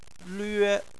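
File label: "lüe", Chinese, first tone; phonetics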